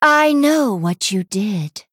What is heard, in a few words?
Female Human Play